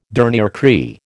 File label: "dernier cri", American